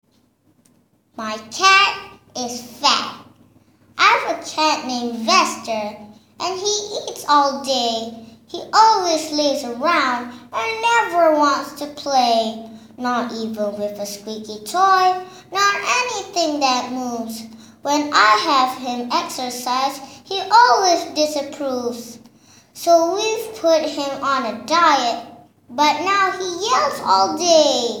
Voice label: 8yr ENG VO